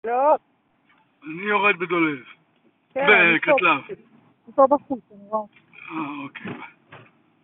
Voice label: Call recording